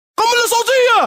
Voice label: TERRIORIST SHOUTING; COD